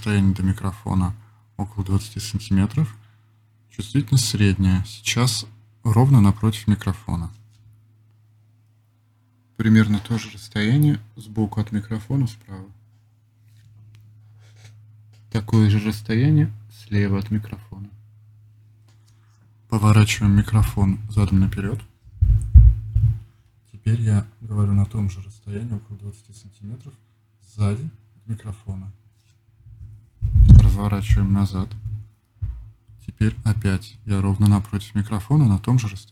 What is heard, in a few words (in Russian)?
blue yeti x golos kardioida; Голос Кардиоида; USB микрофоны